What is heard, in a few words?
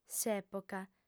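"s'època", lingua sarda, female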